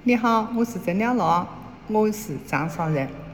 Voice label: Changsha Dialect